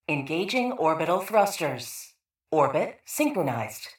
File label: PA System Thrusters Synchronized